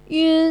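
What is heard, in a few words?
"yun", Chinese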